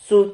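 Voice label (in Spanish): "zud", Spanish syllable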